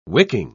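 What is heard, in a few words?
"wicking", British English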